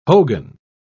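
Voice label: "hogan", British English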